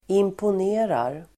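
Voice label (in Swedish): "imponerar", Swedish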